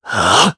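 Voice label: Vox Casting, Japanese; Zafir; kingsraid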